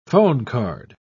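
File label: "phonecard", British English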